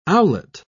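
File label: "owlet", British English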